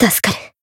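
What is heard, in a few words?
Voice healed, Japanese; suzette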